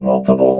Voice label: "multiple", robot